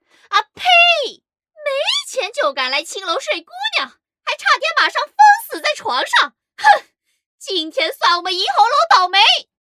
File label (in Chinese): 老鸨音色